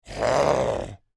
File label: Creature Troll Speak Intrigued, Monster; Monsters; Horror; Big Room Sound